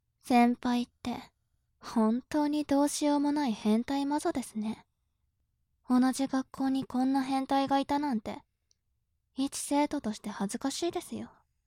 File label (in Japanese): 調教中音声